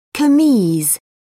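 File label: "kameez", British English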